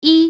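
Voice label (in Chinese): "一", Chinese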